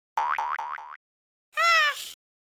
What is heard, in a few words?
taunt, Nagging Wife